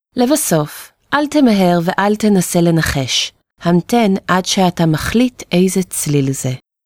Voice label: Review Balance Auditory, hebrew

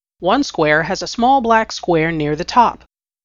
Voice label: Square Target, Test Instructions

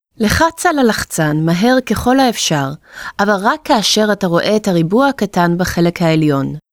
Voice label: Review Press Visual, hebrew

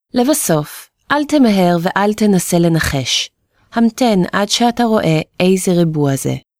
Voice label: Review Balance Visual, hebrew

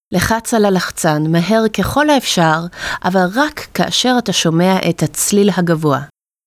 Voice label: Review Press Auditory, hebrew

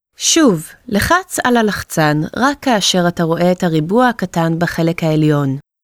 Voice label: Press Visual Target, hebrew

